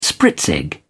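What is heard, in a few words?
"spritzig", English, British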